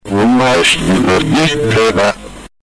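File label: "oh maschi", Italian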